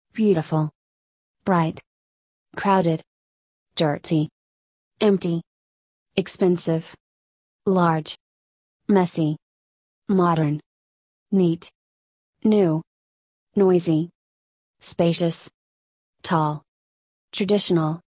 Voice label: usa; adj places